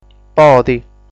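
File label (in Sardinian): "Podi", Sardinian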